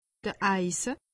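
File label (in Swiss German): "eise", Alsatian; medecine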